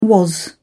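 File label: "was", British English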